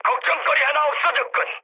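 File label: Korean player killed enemy mood med, speech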